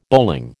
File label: "bowling", American